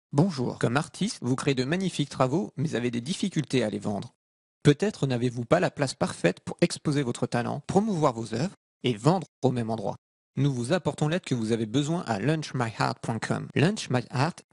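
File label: French, male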